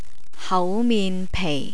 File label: "hauminpei", Cantonese